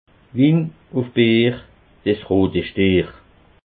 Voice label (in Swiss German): "win", alsace